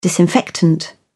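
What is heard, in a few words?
"disinfectant", British English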